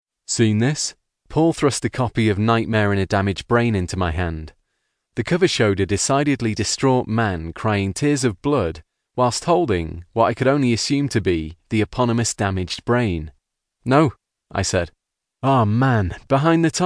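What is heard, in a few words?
Northern audiobook, male; Dead Leaves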